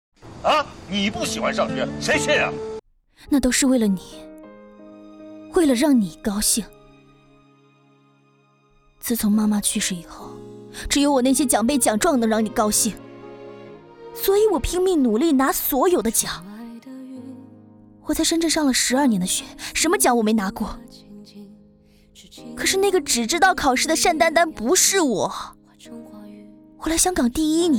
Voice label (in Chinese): 角色 电视剧女儿